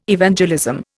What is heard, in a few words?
"Evangelism", Indian